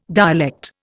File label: "dialect", Indian